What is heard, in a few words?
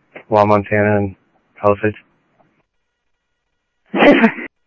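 Sheriff East County, scanner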